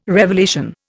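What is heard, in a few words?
"revelation", Indian English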